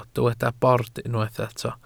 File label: "bord", Welsh